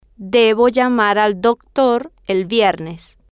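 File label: debo llamar al doctor el viernes, Spanish, female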